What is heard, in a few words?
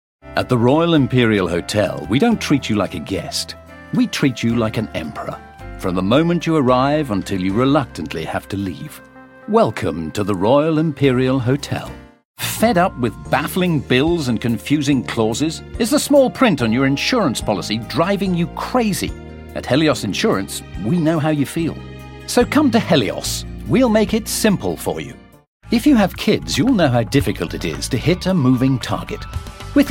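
British English, TV commercial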